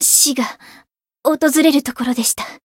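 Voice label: win barely, Japanese; niike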